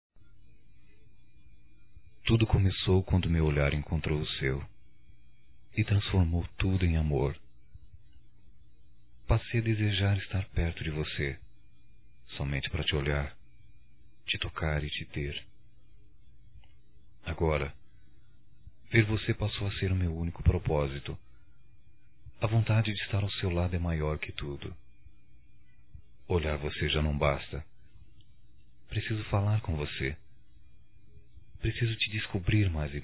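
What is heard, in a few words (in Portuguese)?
voz Romântica Bate Coração, masculino; Telemensagens; Só Voz; Telemensagens 2017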